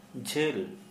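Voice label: Santali male long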